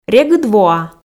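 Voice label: "регыд воа", Komi, female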